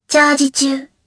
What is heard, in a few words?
Vox Casting jp; Kara; kingsraid